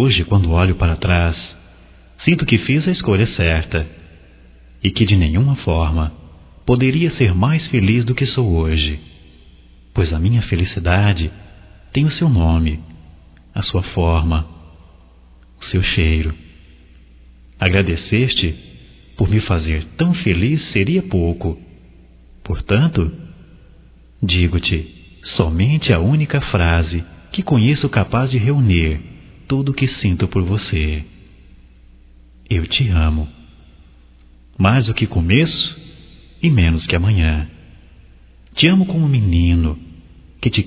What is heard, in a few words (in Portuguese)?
Aniv romântico primeiro beijo; Telemensagens 2017; Aniversarios